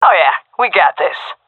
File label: round winning, vo fem; agents; Source Model Packs; Counter Strike Model Pack; CS2